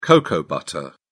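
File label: "cocoa butter", English, British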